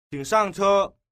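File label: "qing shang che", Chinese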